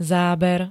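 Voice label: "záber", Slovak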